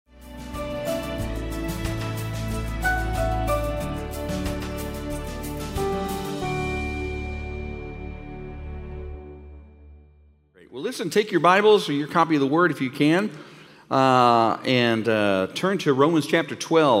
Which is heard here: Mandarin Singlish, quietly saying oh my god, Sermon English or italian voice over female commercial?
Sermon English